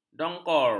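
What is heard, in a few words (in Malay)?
"dongkor", Malay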